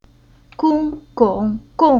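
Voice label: "Gong", Chinese syllable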